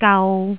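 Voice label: "gau3", Cantonese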